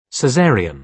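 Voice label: "caesarean", British English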